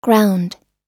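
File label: "ground", American English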